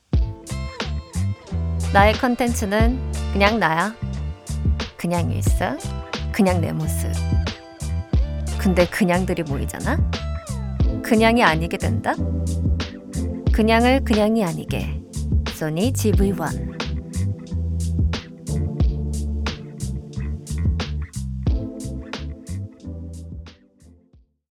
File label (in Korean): 광고 시크한 여유로운 자연스러운 샘플; 소니GV1